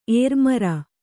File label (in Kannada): "ಏರುಮಾರು", Kannada